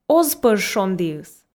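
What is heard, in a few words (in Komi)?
оз пӧж шондіыс, Komi, female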